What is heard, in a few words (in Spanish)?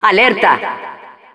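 Announcer alert, Spanish; "¡Alerta!"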